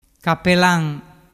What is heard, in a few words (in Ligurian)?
"capelan", dialettu